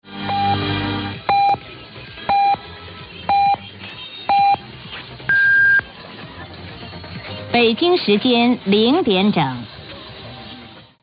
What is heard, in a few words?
Chinese time signal and announcement; 1600 UTC